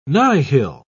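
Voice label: "nihil", British English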